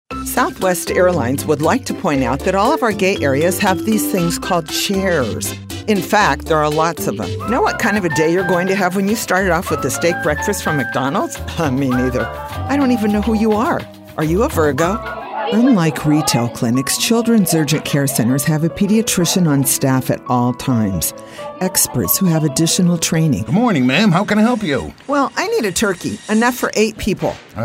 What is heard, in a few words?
Commercial